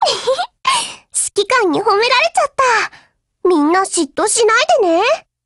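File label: WIN Japanese, Voice; GF Alarm; FN57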